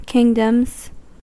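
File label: "kingdoms", American English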